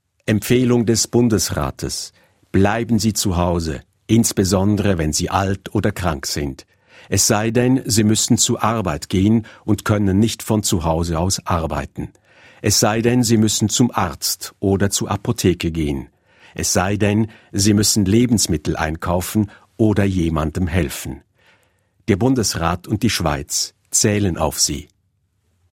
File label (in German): Radio